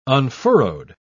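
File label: "unfurrowed", English, uk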